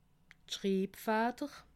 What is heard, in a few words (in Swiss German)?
"schribfatter", alsace